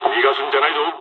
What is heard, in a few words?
dialogs japanese; War Thunder